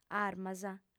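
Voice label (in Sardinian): "armas", female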